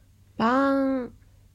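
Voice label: "baan", Japanese; バーン – baan; manga onomatopoeia sound effects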